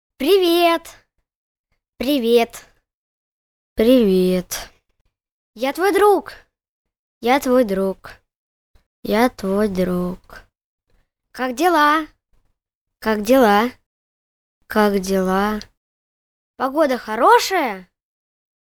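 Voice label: vox Russian child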